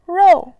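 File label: "rou", Mandarin Chinese, fourth tone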